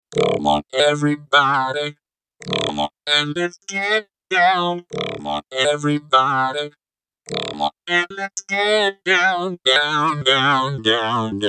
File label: vocoder